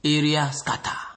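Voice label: "area scatter", Nigerian Pidgin, male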